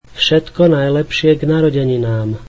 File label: Happy birthday, slovak